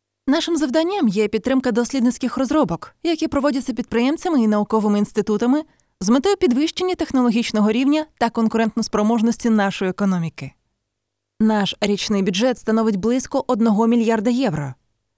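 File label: Ukrainian, female, explainer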